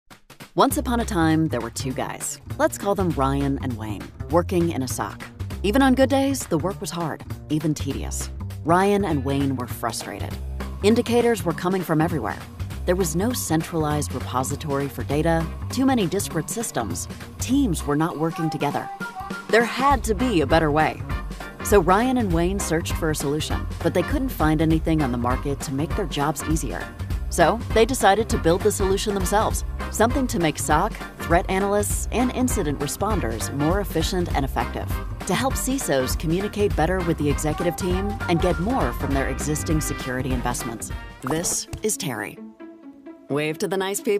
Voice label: Explainer